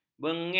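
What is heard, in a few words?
"bengik", Malay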